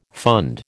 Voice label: "fund", American

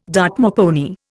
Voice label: "Dartmoor pony", Indian

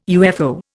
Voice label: "UFO", Indian

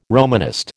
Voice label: "Romanist", American

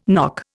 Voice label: "knock", Indian

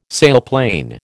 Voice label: "sailplane", American

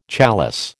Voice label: "chalice", American

